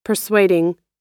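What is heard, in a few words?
"persuading", American English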